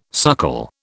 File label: "suckle", British